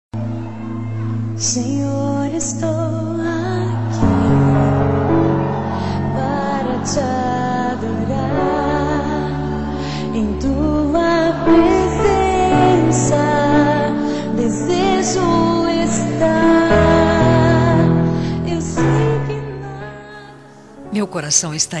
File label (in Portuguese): ANIV FILHO EVANG FEM, telemensagem evangelica aniversario filho